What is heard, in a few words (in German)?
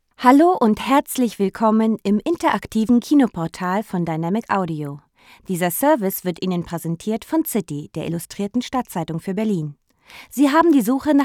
telefon, deutsch frauen